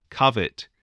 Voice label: "covet", voice spelling